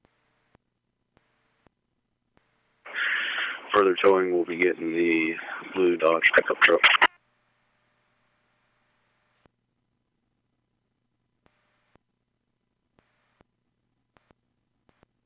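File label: P25 dispatch radio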